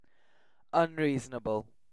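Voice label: "unreasonable", British English; pronunciations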